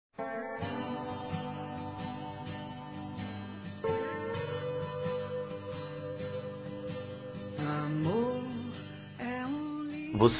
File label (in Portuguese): Amantes Masculino, Telemensagens Dia Dos namorados; Telemensagens 2017